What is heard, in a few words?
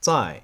"zai", Chinese